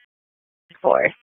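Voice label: Police Northeast District Dispatch, p25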